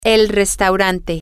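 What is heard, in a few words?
the restaurant, spanish, female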